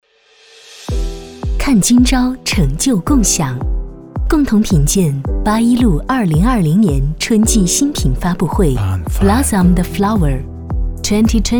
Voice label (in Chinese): 女 专题 新品发布会 时尚; 芭依璐